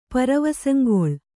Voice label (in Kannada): "ಪರವಸಂಗೊಳ್", Kannada